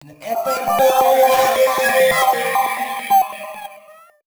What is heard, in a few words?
male, voice